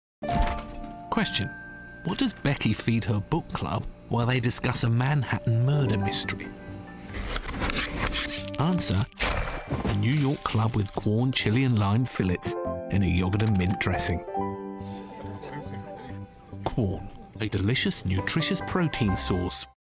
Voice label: TV Advert; Quorn Meat Free Fillets New York Club Sandwich